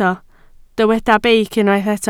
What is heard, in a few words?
"beic", Welsh